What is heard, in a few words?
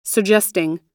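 "suggesting", US English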